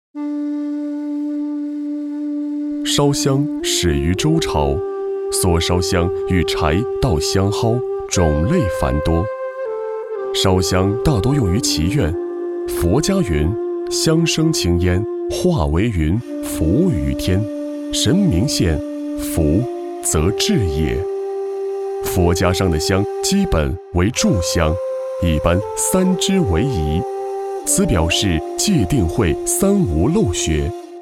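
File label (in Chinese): 男国大音-文化宣传片; 中国香文化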